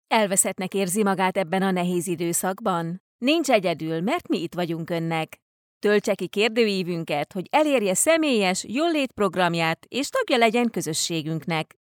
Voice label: female hungarian voice over upbeat